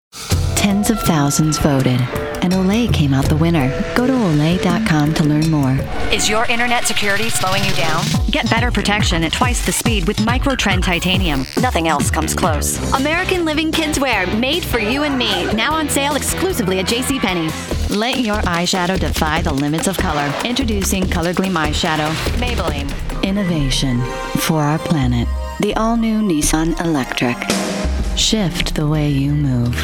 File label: Commercial Demo; Chicago IL